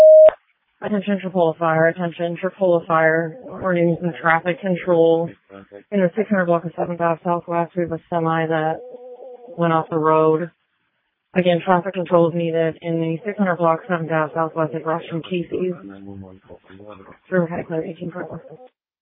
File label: Tripoli Fire, dispatch